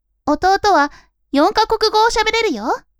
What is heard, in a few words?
women